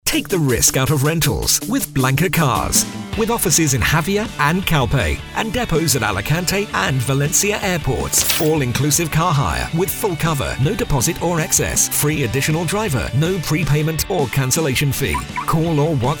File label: Radio Advert; BLANCA CARS; Bay Radio